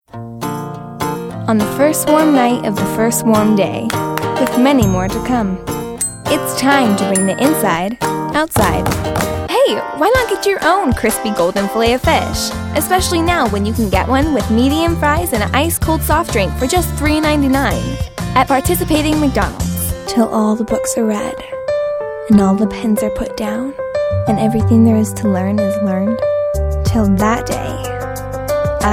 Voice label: COMMERCIAL